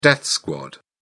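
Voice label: "death squad", British English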